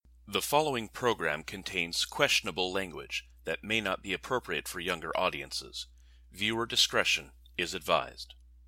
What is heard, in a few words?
PSA Viewer Discretion